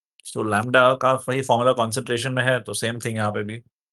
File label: voice message